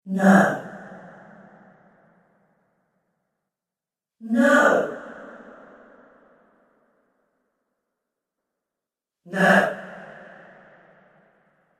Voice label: Female Ghost Shouts No Collection; Ghost Sound Effects